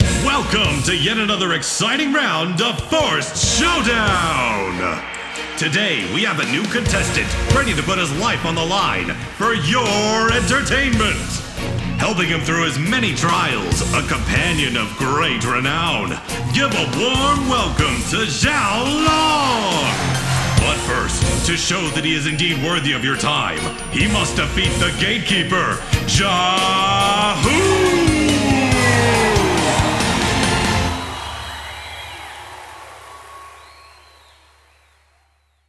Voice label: Presentation VO and Music

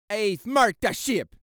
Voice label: Voices Jamaican, I've Marked The Ship

